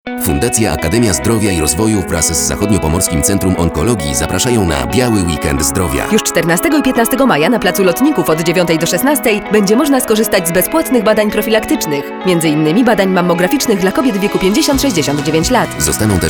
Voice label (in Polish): SPOT RADIOWY